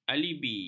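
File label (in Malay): "alibi", Malay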